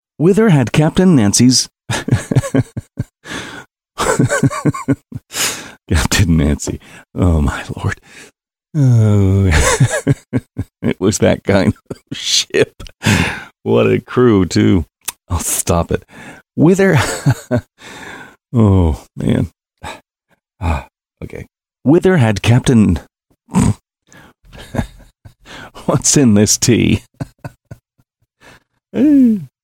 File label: audiobook blooper; captain who